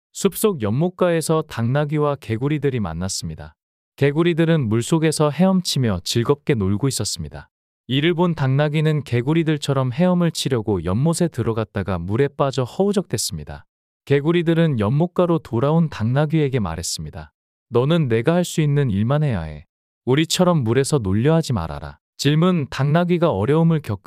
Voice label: 당나귀와 개구리들 이야기 남자

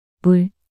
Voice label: "물" 단어 여자

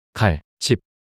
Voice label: 칼 칩 낱소리 남자

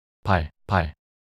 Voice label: "발발" 낱소리 남자

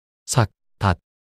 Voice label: "삭닻" 낱소리 남자

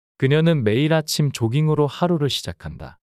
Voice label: 문장 남자; 그녀는 매일 아침 조깅으로 하루를 시작한다

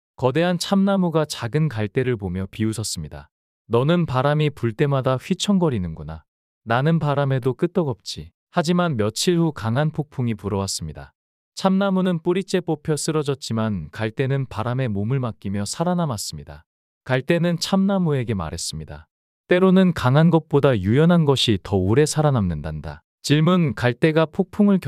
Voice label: 참나무와 갈대 이야기 남자

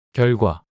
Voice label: "결과" 단어 남자

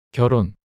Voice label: "결혼" 단어 남자

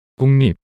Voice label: "국립" 단어 남자

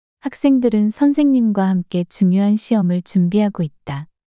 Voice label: 학생들은 선생님과 함께 중요한 시험을 준비하고 있다 문장 여자

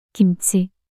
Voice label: "김치" 단어 여자

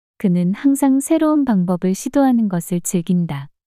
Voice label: 그는 항상 새로운 방법을 시도하는 것을 즐긴다 문장 여자

